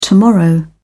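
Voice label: "tomorrow", British English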